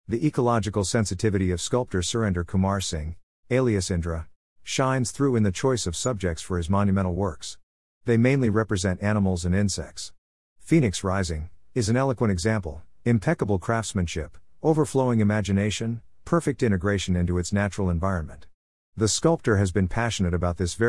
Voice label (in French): anglais